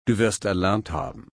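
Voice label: "erlernen", German, slow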